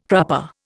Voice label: "crupper", Indian